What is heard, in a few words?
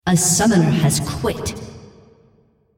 female, On Leave